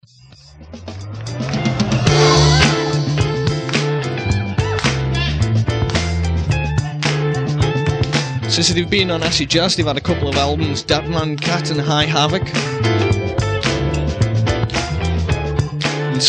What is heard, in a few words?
voices of presenters; bfm RSL 1994